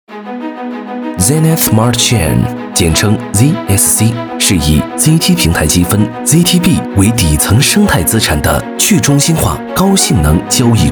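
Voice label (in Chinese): 男国大音-科技感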